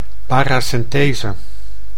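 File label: "paracentese", Dutch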